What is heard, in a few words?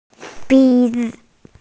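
"bi2zi5", Mandarin Chinese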